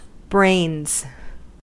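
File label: "brains", US English; pronunciations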